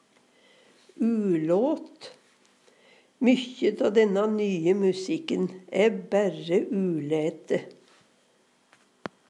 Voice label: ulaete, Numedalsmål; Substantiv inkjekjønn

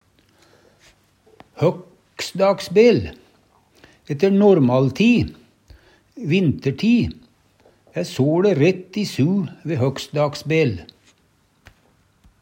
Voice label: "høgsdagsbel", Numedalsmål; Ette normalti'/vinterti' æ soLe rett i su ve høgsdagsbel.; Tida (dagen, året, merkedagar)

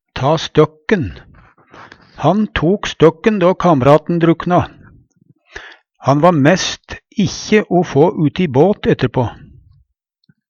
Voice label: ta støkken, Numedalsmål; Han tok støkken då kam'raten drukna, va mæst ikkje te o få uti båt etterpå.

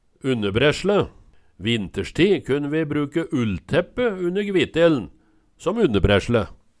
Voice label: unnerbresle, Numedalsmål

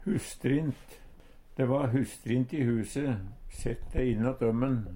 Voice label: "husstrint", Numedalsmål; De va husstrint i huse, sett de innåt ømmen.; Vêr og føre

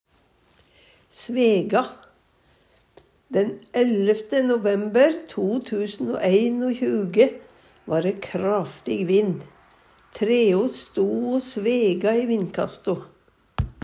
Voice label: svega, Numedalsmål

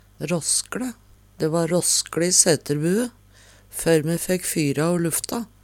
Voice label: rasskle, Numedalsmål; Dæ va råsskLe i sæterbue før me fækk fyra o lufta.; Adjektiv

